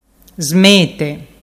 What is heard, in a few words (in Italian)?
"smete", dialettu